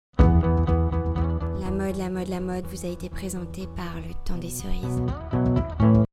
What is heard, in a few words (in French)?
LA MODE, VOIX SEXY GLAM DYNAMIQUE; VOIX GLAM ROCK